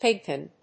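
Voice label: "pigpen", English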